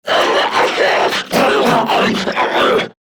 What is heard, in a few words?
Death Grineer Manic; Battle Barks